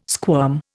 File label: "squirm", Indian